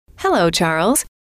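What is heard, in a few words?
"hello", female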